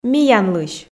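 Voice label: "миянлысь", Komi, female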